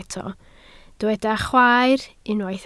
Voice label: "chwaer", Welsh